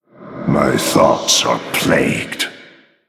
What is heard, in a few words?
Male Night Elf Thinking; Malfurion Deathknight